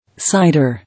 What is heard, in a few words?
"Cider", English